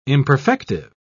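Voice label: "imperfective", English, British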